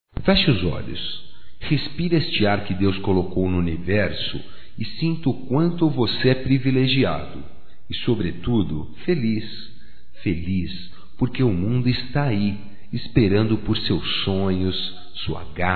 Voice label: ESPERANÇA, masc; Amizades; Telemensagens 2017

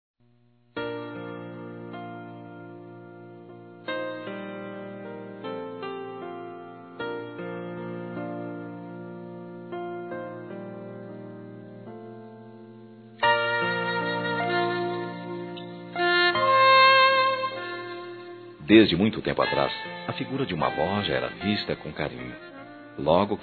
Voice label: Aniversário Avó Masculino

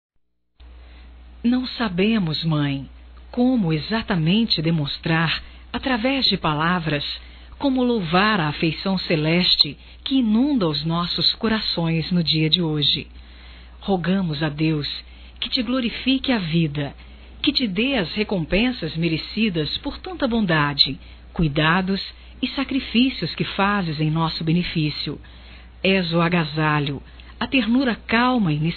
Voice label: filha envia, telemensagens, Dia das Mães; Telemensagens 2017

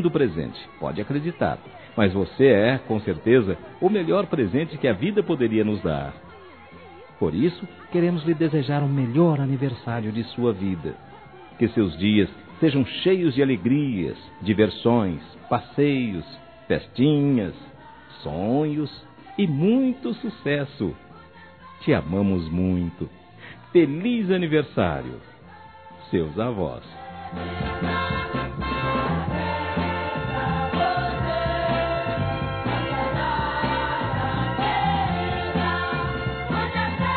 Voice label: Neto Criança Masculino; Telemensagens 2017; Aniversários; Aniversário Infantil

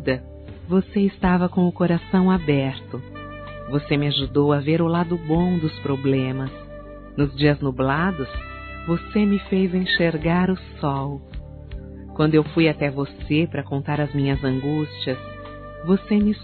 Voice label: DECLARAÇÃO DE AMIZADE, Telemensagens, Fem

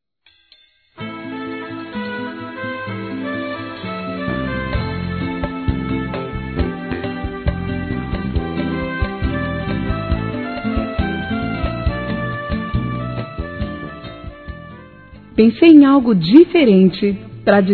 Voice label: PRIMO A F, Telemensagens, Aniversários, Fem; Aniv Familiar

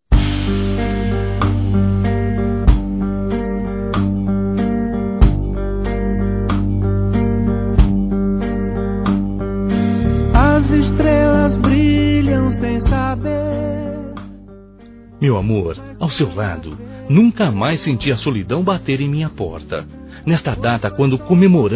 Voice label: ANIV. ROM M; Aniversários; ANIVERSARIO romantico; masc

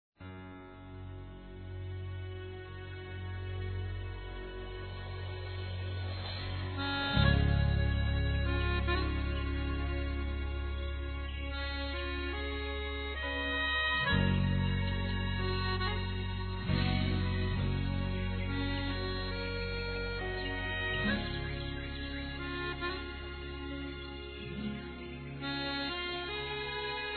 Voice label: O Poder da Fé Masculino, Gospel

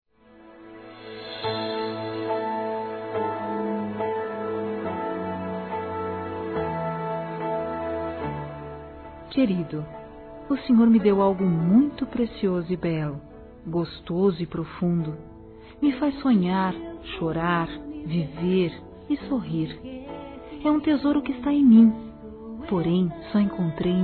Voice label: DECLARAÇÃO AO ESPOSO F, Telemensagens Gospel fem; Telemensagens 2017

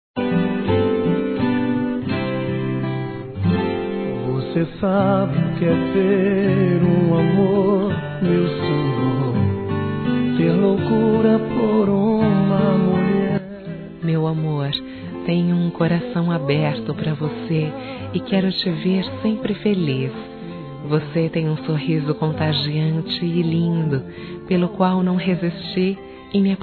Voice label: ANIV. ROMANTICO F

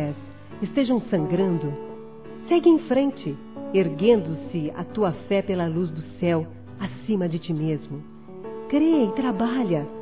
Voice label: CONFIE SEMPRE F, Telemensagens, Gospel; fem; Telemensagens 2017

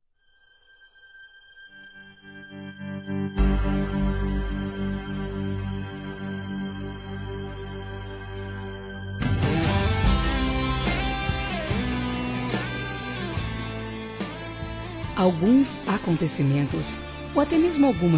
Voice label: Romantica Você Marcou Demais Feminino, Telemensagens; Românticas

